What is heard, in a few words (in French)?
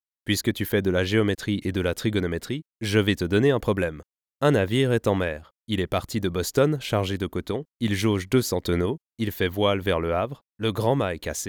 french podcasts